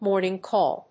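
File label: "morning call", English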